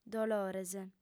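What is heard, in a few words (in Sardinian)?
"dolores", female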